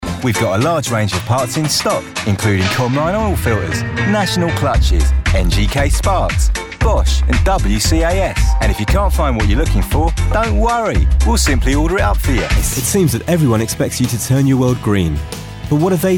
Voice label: London Accent